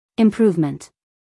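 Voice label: "improvement", American English, female; everyone-can-use-english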